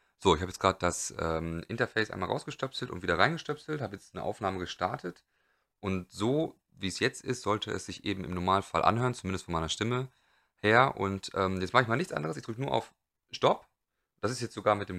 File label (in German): aufnahme normal, Stimme beim Aufnehmen